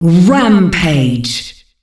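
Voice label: female rampage; Monster Hunt 2 Lite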